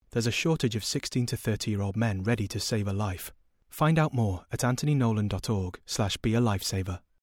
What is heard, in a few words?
male english slight northern accent tv commercial ident; anthony nolan